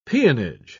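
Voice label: "peonage", British English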